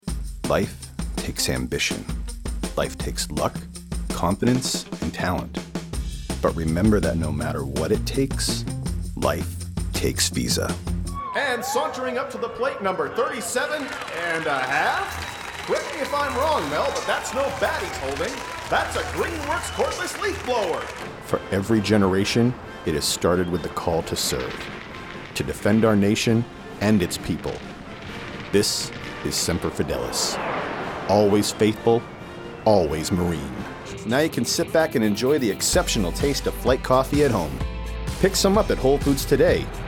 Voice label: Commercial